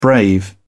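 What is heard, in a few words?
"brave", British English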